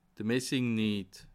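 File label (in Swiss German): "messingniet", Alsatian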